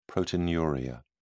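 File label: "proteinuria", British English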